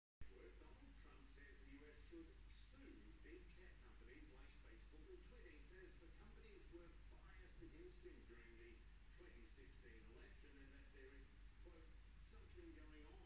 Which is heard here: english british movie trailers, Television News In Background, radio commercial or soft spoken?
Television News In Background